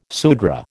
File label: "Sudra", American